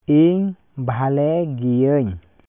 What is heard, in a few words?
Santali; I am fine.